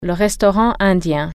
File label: "indian restaurant", French